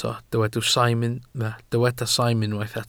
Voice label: "saim", Welsh

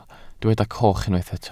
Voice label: "coch", Welsh

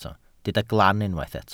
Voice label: "glan", Welsh

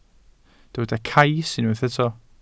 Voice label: "cais", welsh

